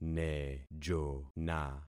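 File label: "nejona", concatenated syllables; Affixing experiment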